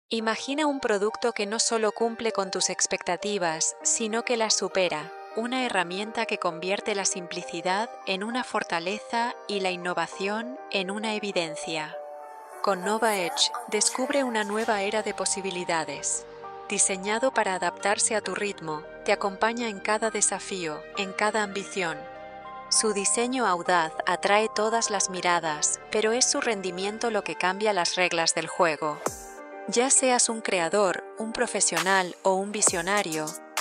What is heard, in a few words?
spanish promos